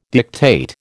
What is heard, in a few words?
"dictate", American